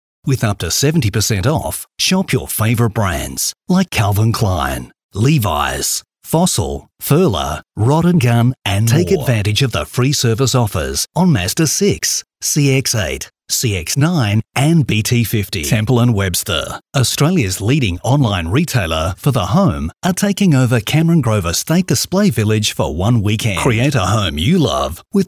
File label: Soft Sell